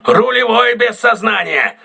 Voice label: voice message helmsman contused, crew ships russian